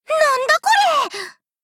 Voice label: shock